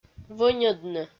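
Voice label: "вӧньӧдны", Komi, female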